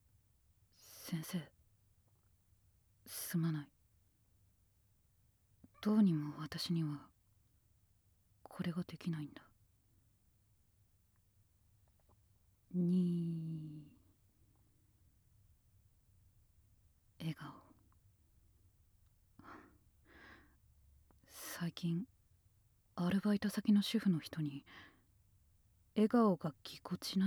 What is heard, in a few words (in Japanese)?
フリートーク